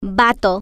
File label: "vato", Spanish